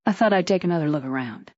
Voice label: fem